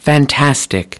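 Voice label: "fantastic", English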